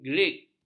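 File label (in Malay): "greek", Malay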